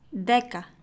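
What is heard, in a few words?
"deka", Ancient Greek; δέκα déka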